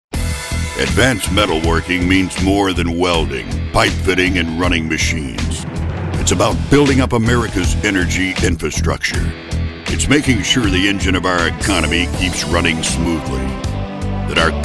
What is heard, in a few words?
authoritative